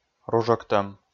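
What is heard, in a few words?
"ружӧктӧм", Komi, male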